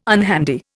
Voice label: "unhandy", Indian